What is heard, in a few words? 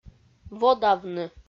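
"водавны", Komi, female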